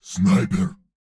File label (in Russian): "Снайпер!", Фраза; Турбосвин